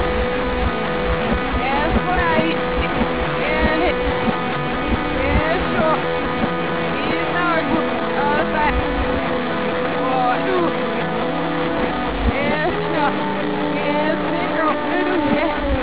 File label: pig latin BACK